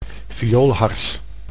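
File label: "vioolhars", Dutch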